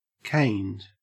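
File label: "caned" English, Australian accent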